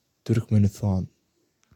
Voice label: Turkmenistan pronounced in turkmen